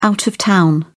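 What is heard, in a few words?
"out-of-town", British English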